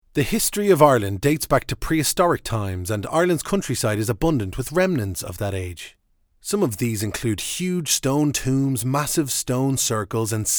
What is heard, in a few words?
narrative voice reel